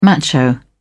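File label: "macho", British English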